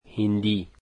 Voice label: "Hindi", dari